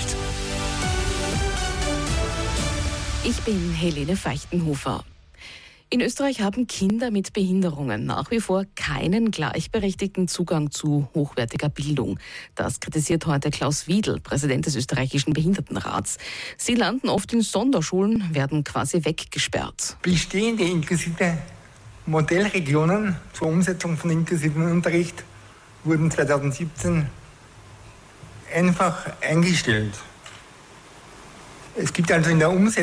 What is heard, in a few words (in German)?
Nachrichten